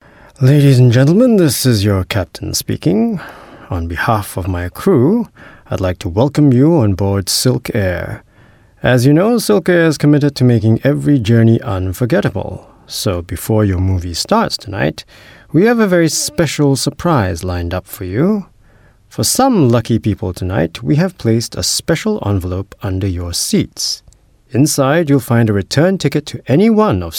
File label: Asian English Pilot